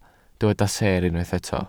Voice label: "seer", Welsh